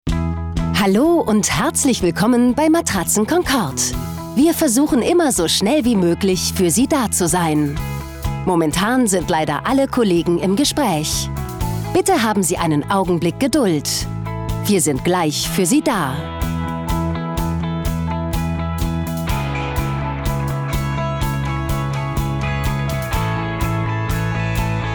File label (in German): telefonansage